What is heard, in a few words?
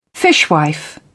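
"fishwife", British English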